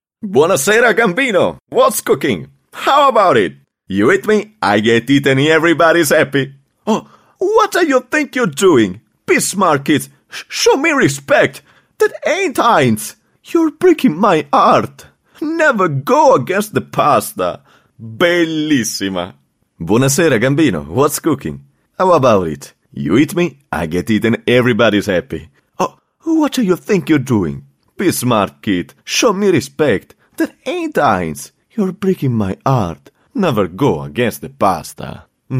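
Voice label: Italian English, male, commercial, accent of italy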